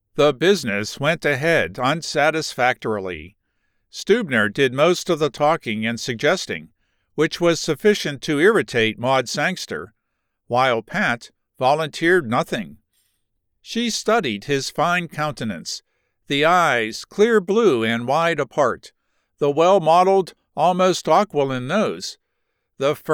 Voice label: Fict 3rd person M F dialogue